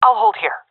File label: waiting here, seal fem; vo; agents; Source Model Packs; Counter Strike Model Pack; CS2